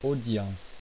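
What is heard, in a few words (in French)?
"audience" French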